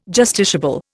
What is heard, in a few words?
"justiciable", Indian